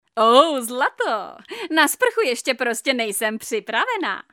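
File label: female Czech drama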